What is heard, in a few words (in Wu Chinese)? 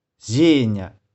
"前日", 台州語; 前日。[the day before yesterday]; 玉環台山